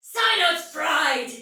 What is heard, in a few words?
See Ally Hit Soldier Female, Battle; Dialog; Sinecure